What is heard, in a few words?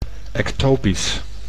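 "ectopisch", Dutch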